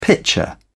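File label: "pitcher", British English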